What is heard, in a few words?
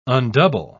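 "undouble", British English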